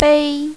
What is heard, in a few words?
"bei5", mandarin, female